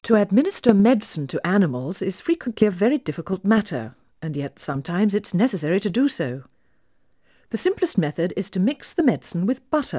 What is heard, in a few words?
Female speech English Mono output noised, 3bit